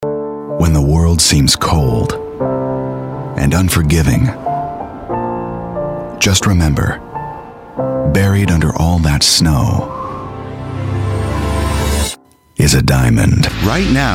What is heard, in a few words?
commercial